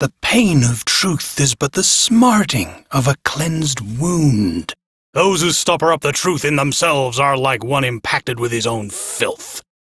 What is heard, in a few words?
Crew Idle, Male, en; Warframe; Railjack Crew; Arbiters Hexis